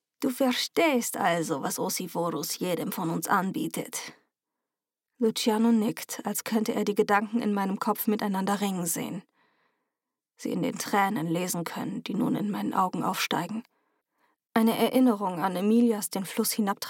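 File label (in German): Hörbuch Demo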